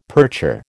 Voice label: "percher", American